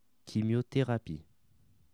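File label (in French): "chimiotherapie", French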